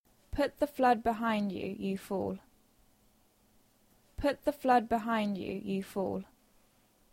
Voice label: Dictation; phonology